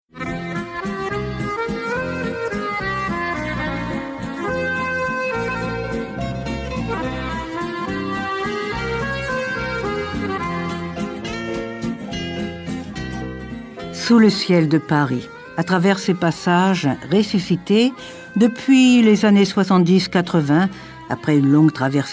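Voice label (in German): French Freundlich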